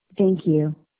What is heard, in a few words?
voicemail